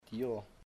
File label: uncle, chamacoco